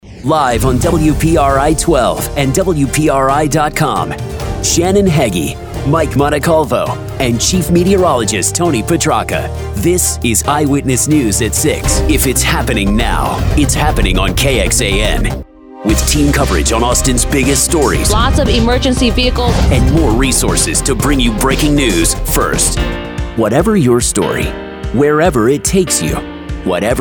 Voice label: tv affiliate male